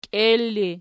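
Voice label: "kiel" ergative, Archi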